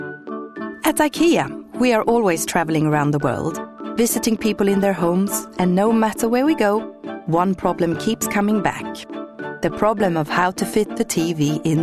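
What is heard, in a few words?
Swedish English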